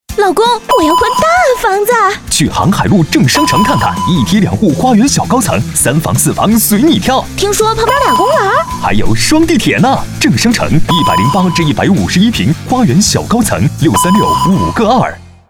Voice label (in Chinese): 广告 地产 房地产男女对话配音 年轻